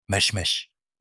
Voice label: apricot in arabic